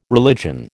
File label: "religion", American